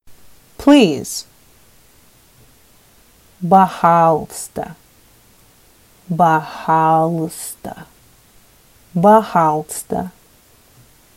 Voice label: Sakha "Please"